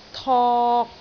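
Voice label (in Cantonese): "tok3", Cantonese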